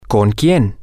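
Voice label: with Who, Spanish, male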